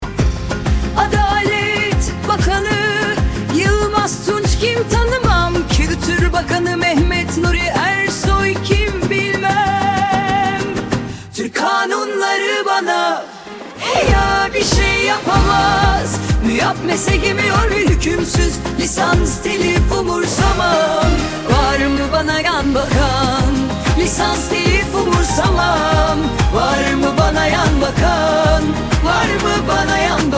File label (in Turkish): Kadin